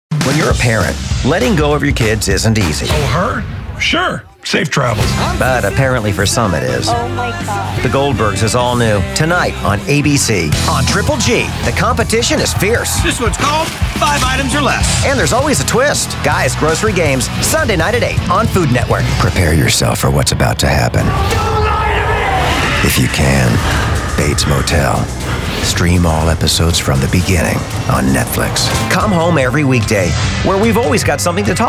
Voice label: promos, men

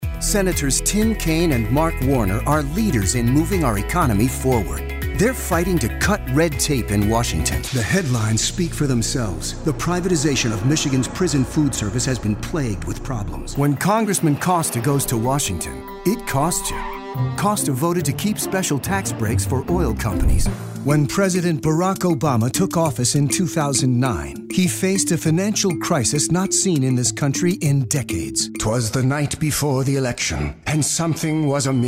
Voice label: political men

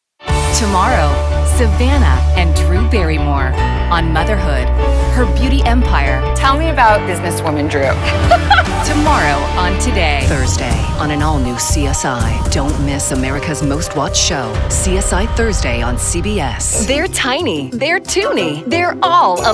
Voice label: promo women

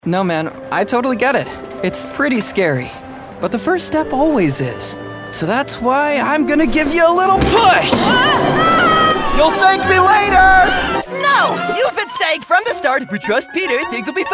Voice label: animation men, voices men

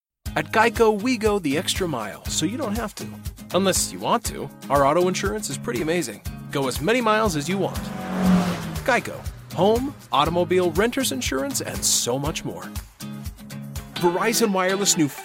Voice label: commercial men

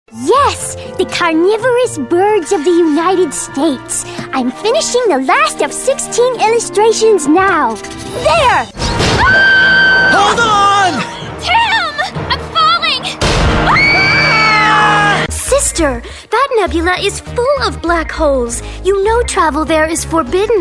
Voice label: animation

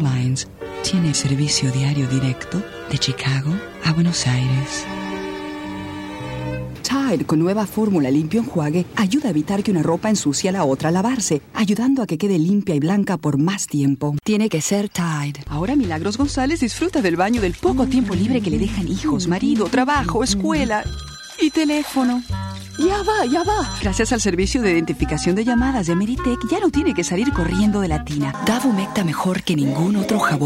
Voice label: Spanish

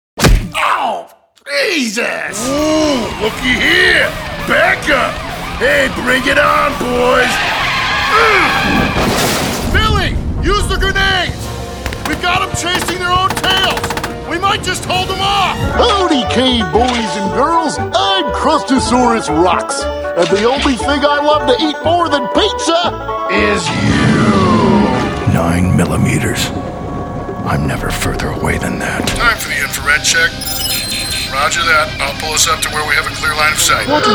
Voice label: animation men

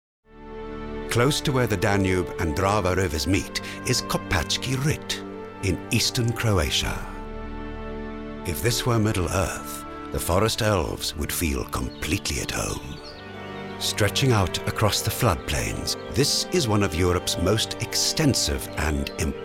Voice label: narration male

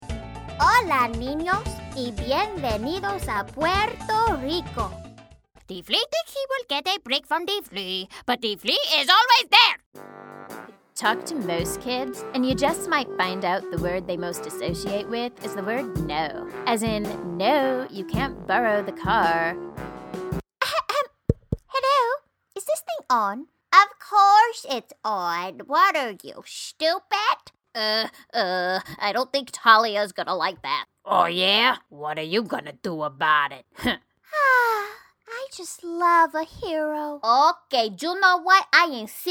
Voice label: animation women

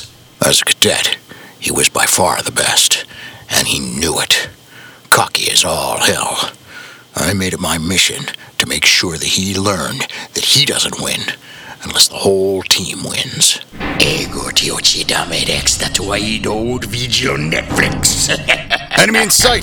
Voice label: animation men video game, voices men

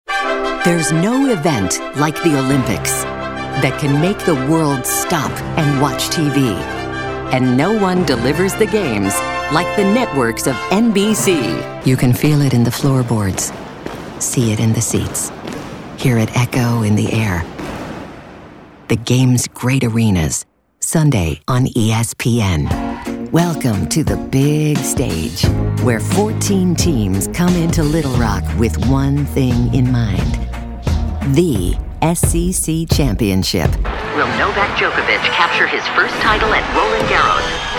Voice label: promo women sports

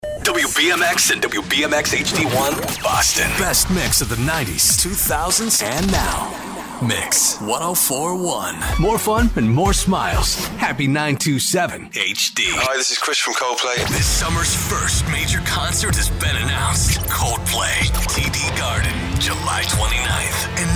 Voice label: radio ac; radio imaging

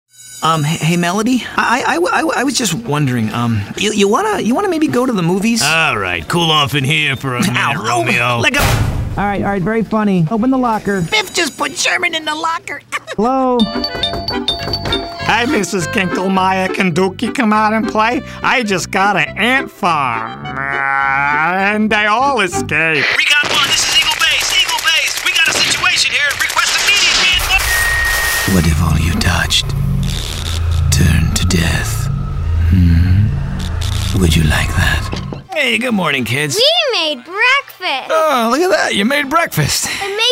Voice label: animation, men

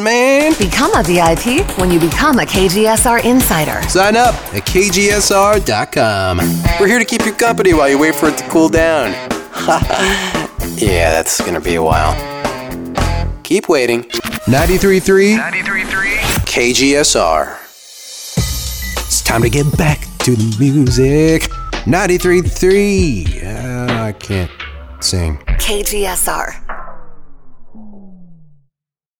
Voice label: radio, radio imaging

